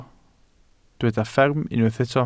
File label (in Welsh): "fferm" Welsh